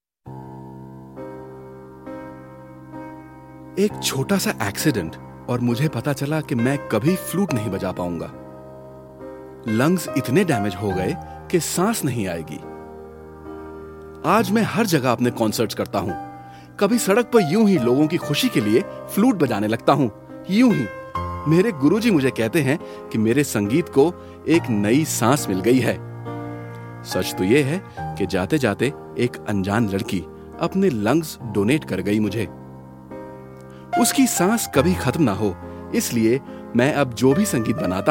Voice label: Male Hindi